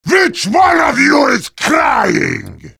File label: jeers; heavy